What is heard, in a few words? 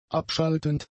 "abschaltend", German; adjectives